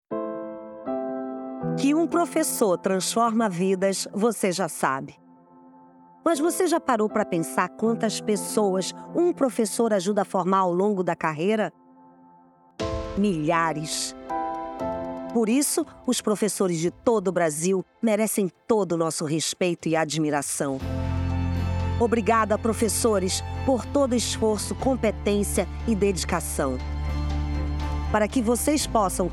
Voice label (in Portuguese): spot; avamec